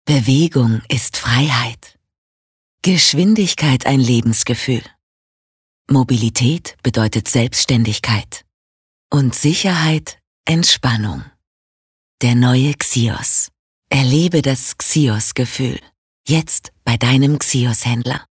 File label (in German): Sanft; Xios